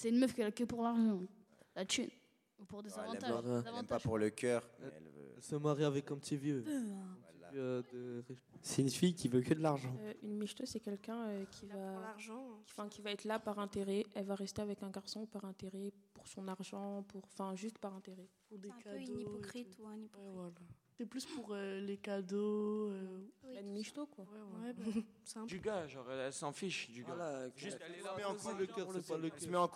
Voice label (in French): Patois Ados; sexualites adolescentes a l'ere du numerique